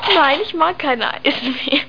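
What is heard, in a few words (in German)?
"nein", German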